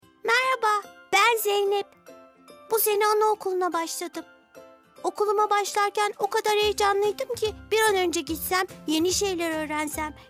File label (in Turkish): KIZ COCUK